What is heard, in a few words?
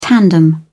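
"tandem", British English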